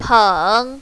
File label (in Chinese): "peng3", mandarin, female